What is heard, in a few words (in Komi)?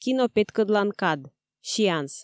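"кино петкӧдлан кад сеанс", Komi, female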